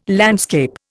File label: "landscape", Indian